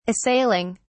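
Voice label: "assailing", British English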